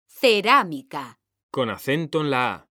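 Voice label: "CERÁMICA", Spanish, female; mekanta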